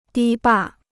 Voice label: "堤坝", Chinese

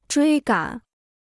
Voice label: "追赶", Chinese

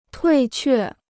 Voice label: "退却", Chinese

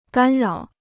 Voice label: "干扰", Chinese

